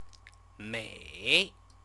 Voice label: "mei", Chinese

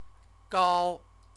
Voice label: "gao", Chinese; CTCFL; University of Oxford

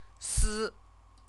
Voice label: "si", Chinese; CTCFL; University of Oxford

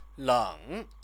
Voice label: "leng", Chinese; 冷; cold; stative verb

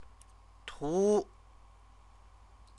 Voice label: "tu", Chinese

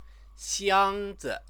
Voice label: "xiangzi", Chinese

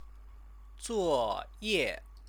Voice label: "zuoye", Chinese; 作 业; assignment; noun

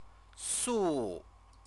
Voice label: "su", Chinese

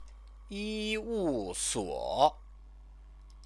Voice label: "yiwusuo", Chinese; Chinese lessons

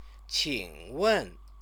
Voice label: "qingwen", Chinese

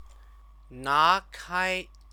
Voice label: "nakai", Chinese

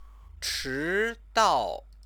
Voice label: "chidao", Chinese; Chinese lessons

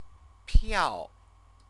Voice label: "piao", Chinese; CTCFL; University of Oxford

